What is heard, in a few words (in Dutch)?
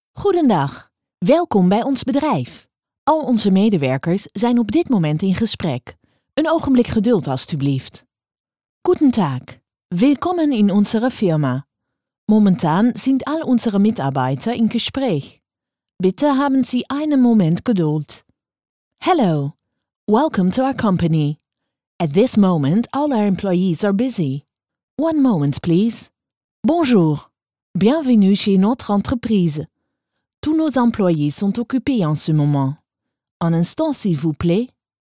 telefonie NE DU ENG FR